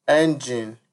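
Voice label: "engine", Nigerian Pidgin, male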